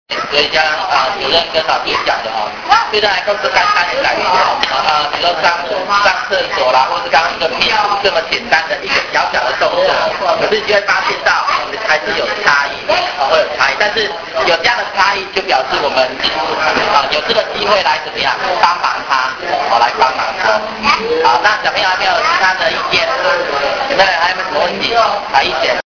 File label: book talking; 感動電池小天使關懷之旅錄音內容